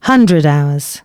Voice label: announcements female